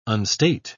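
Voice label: "unstate", British English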